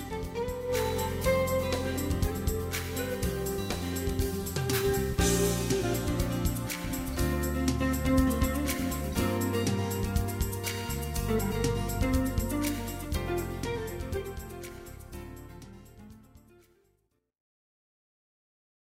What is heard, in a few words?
Standard Please Hold message male